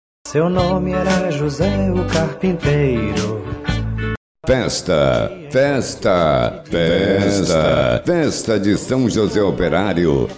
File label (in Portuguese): locutor chamada do novenário